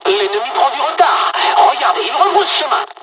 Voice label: dialog, dialogs french; War Thunder